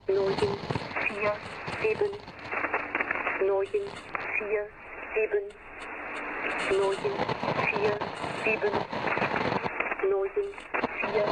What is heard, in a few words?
police radio; DeadFright